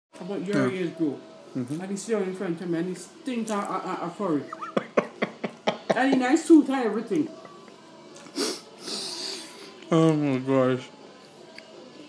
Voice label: mum moaning about lads who smell like curry